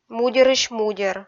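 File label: "мудерысь-мудер" Komi, female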